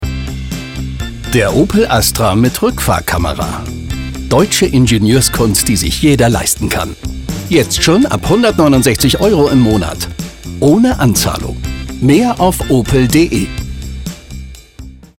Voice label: VoiceOver; Opel

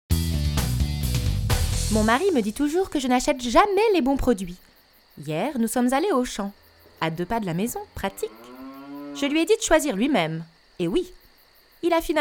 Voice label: Commercial

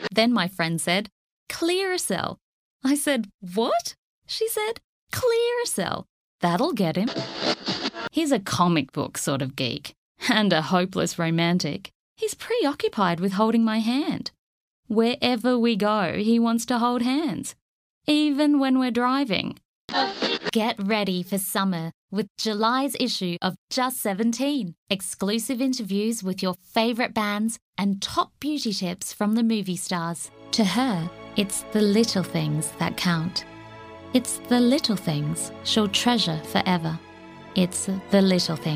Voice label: australian accent commercial

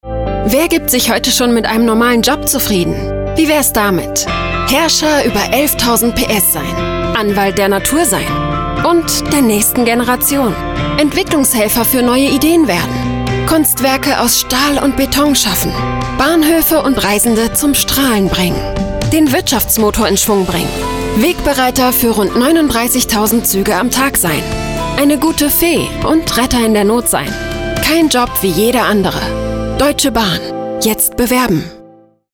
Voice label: COMMERCIAL; Bahn

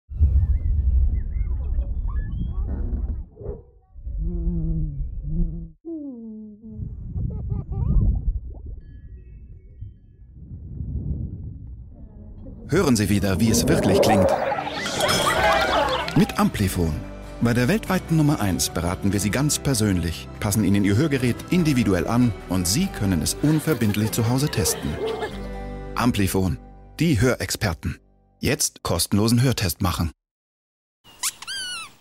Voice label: TV Spot; Amplifon Gartenparty

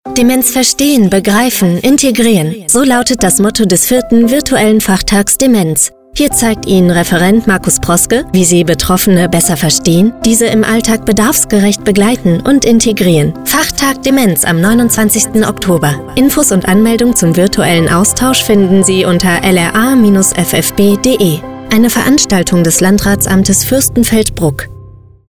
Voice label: Radio Commercial; Fachtag Demenz

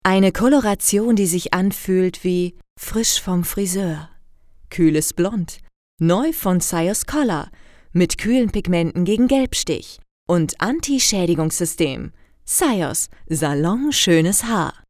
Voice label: Commercial; Syoss

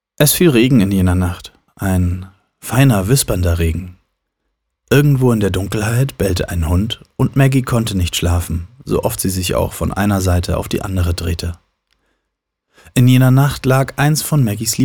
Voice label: Hoerbuch, Audiobook, German; Tintenherz

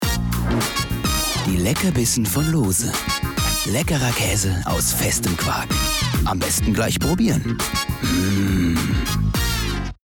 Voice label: TV Spot; Loose

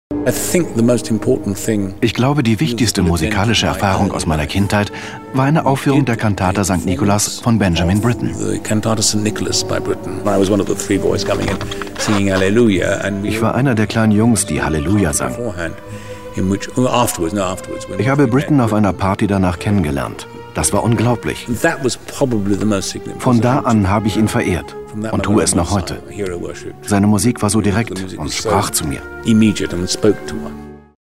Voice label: voice over; LOFT VOICES

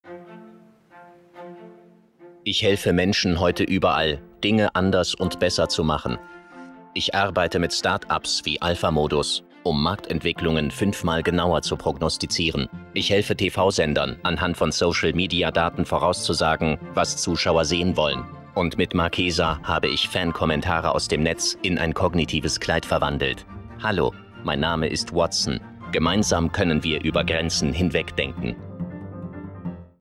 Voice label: tv spot; ibm watson

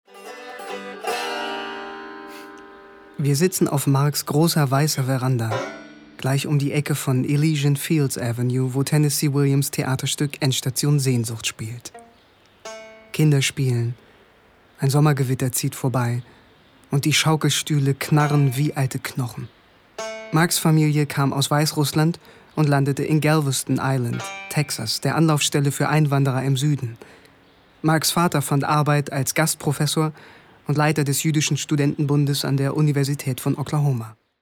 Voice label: voice over; southern discomfort

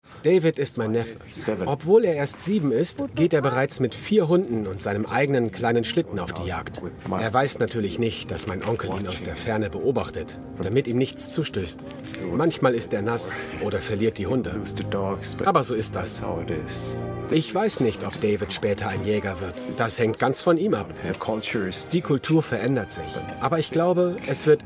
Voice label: VOICEOVER; Last Ice

